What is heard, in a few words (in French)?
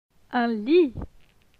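"Un lit", French; phonetique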